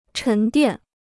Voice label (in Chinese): "沉淀", Chinese